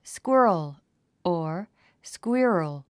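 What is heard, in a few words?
"squirrel", English word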